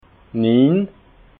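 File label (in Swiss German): "niin", Alsatian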